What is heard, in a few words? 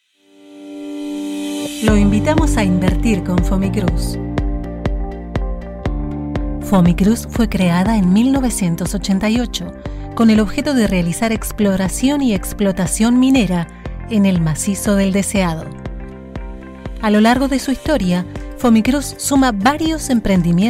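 corporativo, Argentine Spanish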